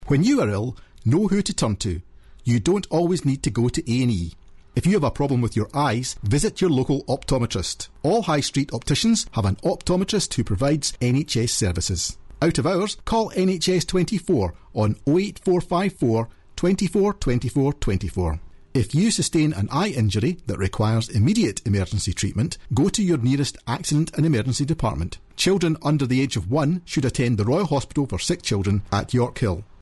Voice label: advert; nhsggc